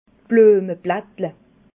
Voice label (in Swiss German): "bluemeblattle", alsace